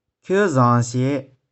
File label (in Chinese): "khieu6zong3shie5", 玉環